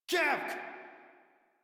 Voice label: Surprised Modular Corpus Male; Dialog; Barks; Battle; Warframe